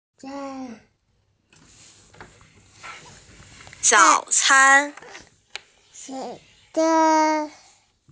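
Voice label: "zao3can1", Mandarin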